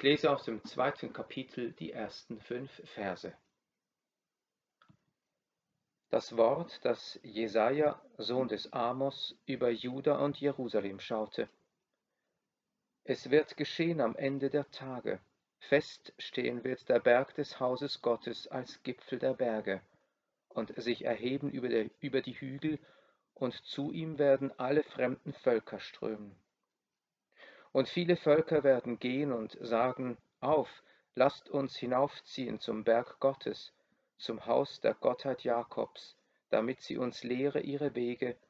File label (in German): Lesung